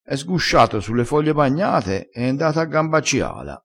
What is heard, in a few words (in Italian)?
"nda a gamba", crespinese